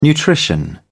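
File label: "nutrition", English, British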